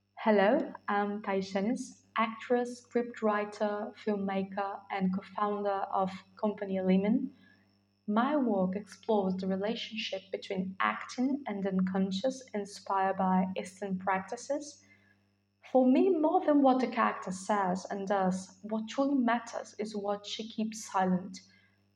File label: Audio Reel English UK